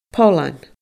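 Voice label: "poilean", Scottish Gaelic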